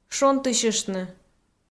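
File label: "шонтысьыштны", Komi, female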